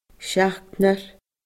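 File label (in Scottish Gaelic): "seachdnar", Scottish Gaelic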